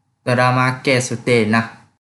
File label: Kelantan-Pattani Malay